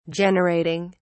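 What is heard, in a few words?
"generating", British English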